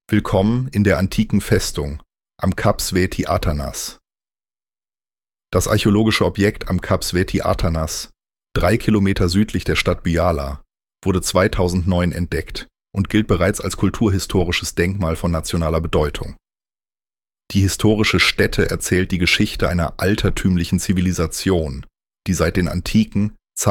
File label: Fortress Intro German; museums audioguide